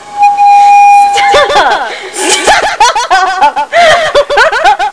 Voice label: woman saying stop